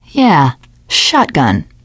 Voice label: take shotgun, teengirl; source engine; left 4 dead 2; survivor